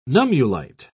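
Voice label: "nummulite", English, British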